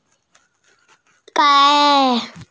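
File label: "gai1", Mandarin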